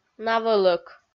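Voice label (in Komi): "навӧлӧк", Komi, female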